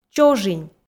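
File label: "чожинь", Komi, female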